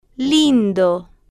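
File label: "lindo", slow, Spanish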